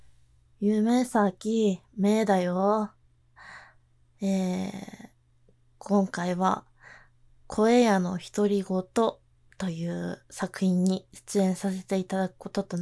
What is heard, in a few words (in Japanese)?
ノイズ除去 自己紹介から質問まで